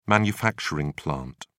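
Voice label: "manufacturing plant", English, British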